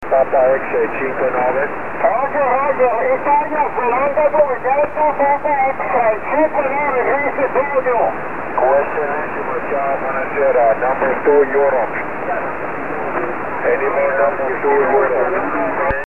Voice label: 14 ssb